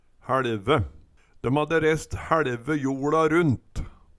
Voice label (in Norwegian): hæLve, Numedalsmål; Eksempel på bruk Døm hadde rest hæLve joLa runt